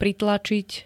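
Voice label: "pritlačiť", Slovak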